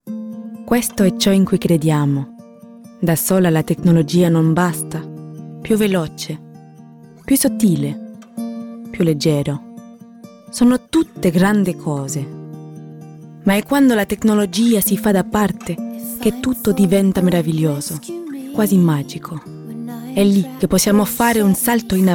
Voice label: Italian commercial narration